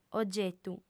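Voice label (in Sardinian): "ogetu", female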